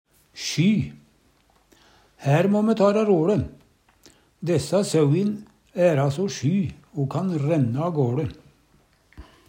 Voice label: sjy sjyr, Numedalsmål; Uttrykk; Hær må me ta ræ role, dæssa sauin æra so sjy o kan renne agåLe.

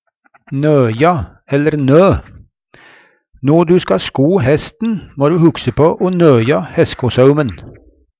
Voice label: nøja, Numedalsmål; No du skor hesten, må du hugse på o nøja hestskosaumen

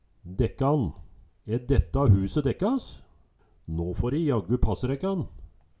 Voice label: "dekkan", Numedalsmål

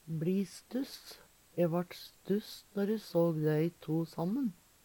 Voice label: bli stuss, Numedalsmål; Uttrykk

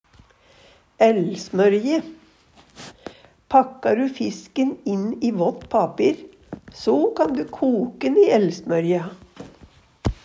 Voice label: "ellsmorje", Numedalsmål; Hushald, mat, drikke

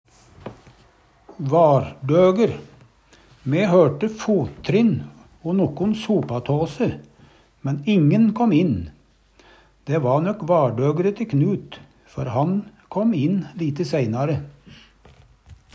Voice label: vardoger, Numedalsmål

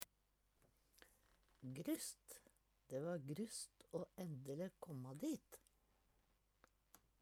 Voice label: grusst, Numedalsmål

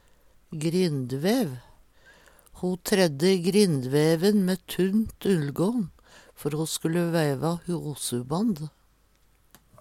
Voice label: grindvev, Numedalsmål

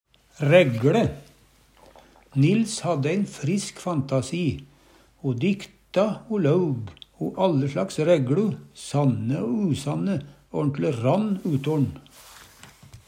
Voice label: rægLe, Numedalsmål; Nils hadde æin frisk fantasi, o dikta o laug, o alle slags rægLu sanne o usanne

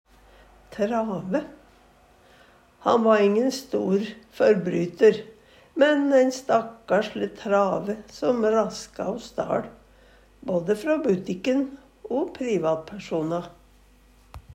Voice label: trave, Numedalsmål; Han va ingen førbryter, men ein stakkarsle trave som raska o staL både frå butikk'n

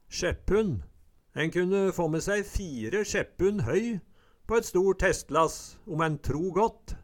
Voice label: "sjeppunn", Numedalsmål; Eksempel på bruk En kunne få me sæi fire sjeppunn høy på et stort hestelass om en tro gått

